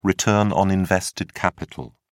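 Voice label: "return on invested capital", British English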